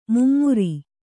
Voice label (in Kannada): "ಮೈಮರೆ", Kannada